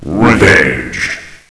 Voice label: revenge, announcer; hl1mp; XDM